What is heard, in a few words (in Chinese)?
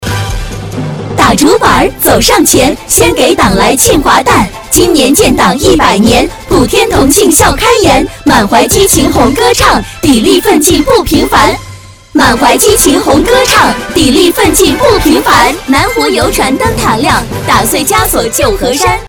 女10-快板